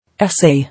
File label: "Essay", English